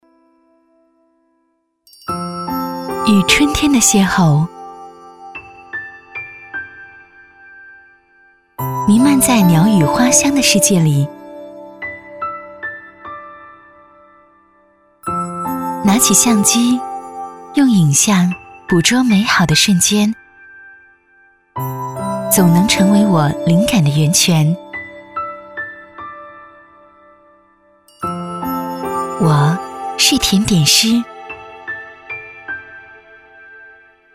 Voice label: 女S112 国语 女声 旁白-宣传 春天 温婉甜美舒缓 沉稳 娓娓道来 亲切甜美 素人

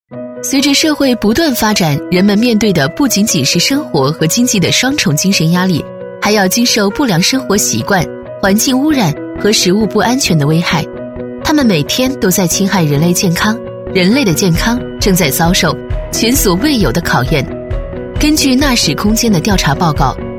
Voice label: 女S112 国语 女声 课件-下颌骨重建 解说词 积极向上 亲切甜美

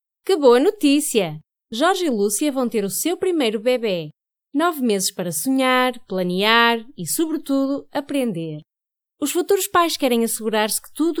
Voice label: 西班牙语女声 Female, Commercial, EU Portuguese, 低沉 激情激昂 大气浑厚磁性 沉稳 娓娓道来

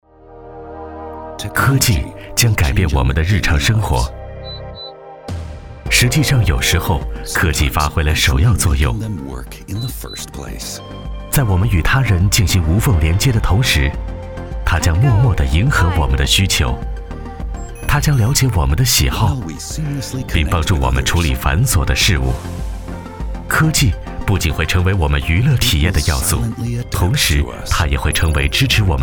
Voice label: 男S347 国语 男声 宣传片-科技话题中文解说-舒缓科技感 大气浑厚磁性 沉稳

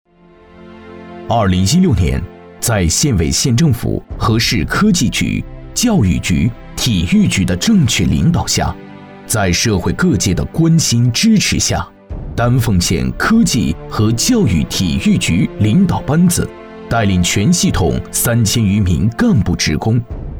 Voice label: 国语 男声 专题纪录 科技局 大气浑厚磁性 沉稳

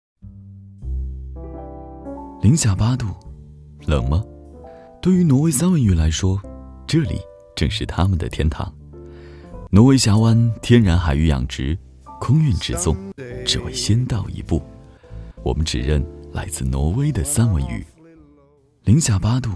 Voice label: 男声 品牌专题—诱惑之音 大气浑厚磁性 沉稳, 国语

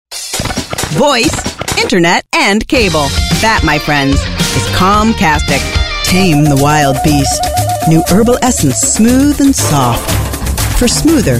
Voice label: 美式英语女声

